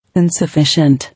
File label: "Insufficient", English